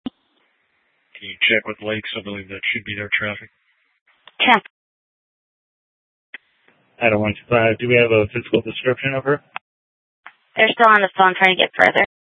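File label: Sheriff, Parks, Sheriff radio scanner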